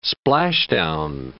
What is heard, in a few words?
"splashdown", English